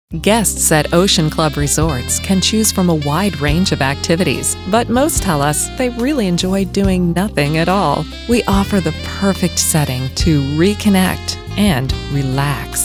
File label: COMMERCIAL